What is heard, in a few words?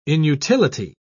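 "inutility", English, uk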